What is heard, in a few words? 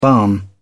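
"barn", British English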